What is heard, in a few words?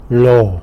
"lo", tlacolula; face